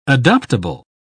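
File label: "adoptable", British English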